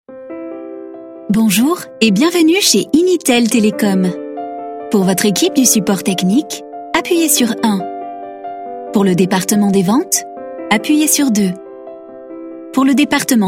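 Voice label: fr, female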